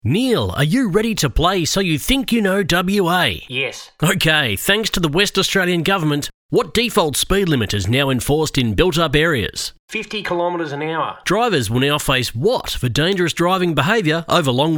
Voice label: Radio Quiz, Live Announcer / Radio Quiz Show, Natural Male Voice; Wa Govt